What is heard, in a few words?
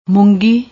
"moongi", Punjabi